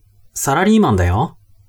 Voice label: man